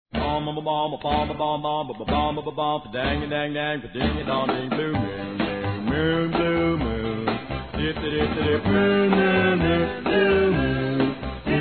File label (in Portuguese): FILHA F; Aniversários; Aniversários diversos; fem